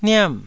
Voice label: "niam4", taiwanese